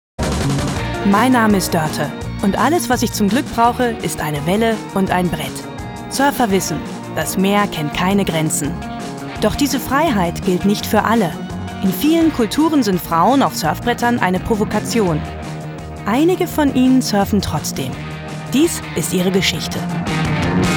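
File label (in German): NARRATION Ich Erzähler Intro; Chicks on Boards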